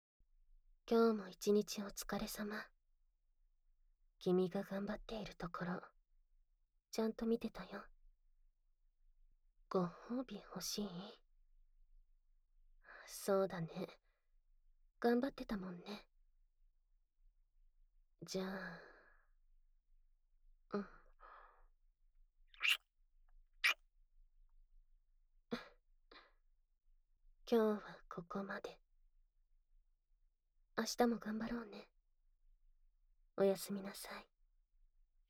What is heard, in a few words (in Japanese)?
おやすみボイス